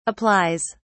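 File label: "applies", British English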